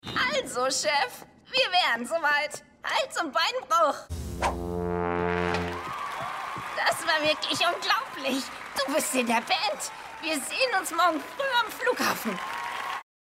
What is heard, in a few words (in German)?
Synchron; Total Dramarama